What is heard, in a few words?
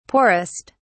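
"poorest", British English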